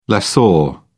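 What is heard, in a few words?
"lessor", British English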